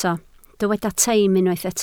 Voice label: "teim", welsh